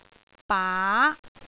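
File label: "baa2", Cantonese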